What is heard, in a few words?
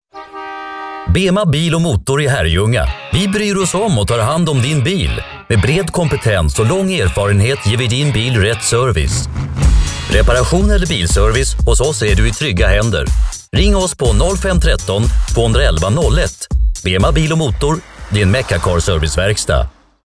Swedish radio ad for car repair shop